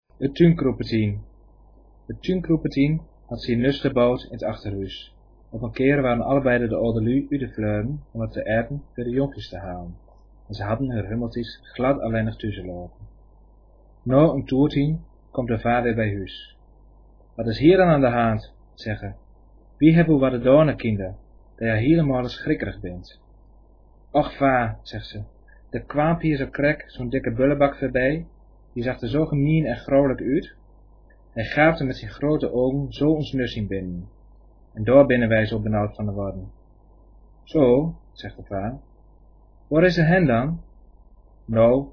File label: zuudwestdreents